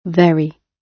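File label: "very", British English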